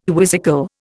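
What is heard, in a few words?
"quizzical", Indian English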